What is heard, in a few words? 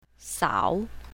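"sao3", pinyin, south, female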